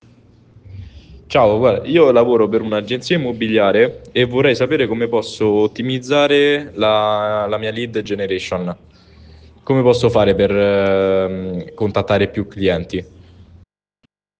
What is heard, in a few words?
voice message